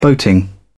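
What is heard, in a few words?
"boating", British English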